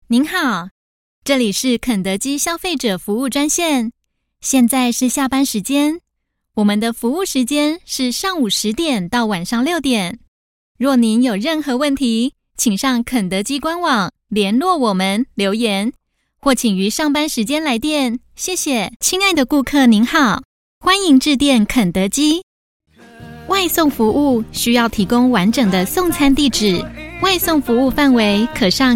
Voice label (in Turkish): Cince Kadin Mandarin Cin Simplified; pekin seslendirme